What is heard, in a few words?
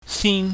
"sin", Chinese